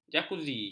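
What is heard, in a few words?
"jakuzi", Malay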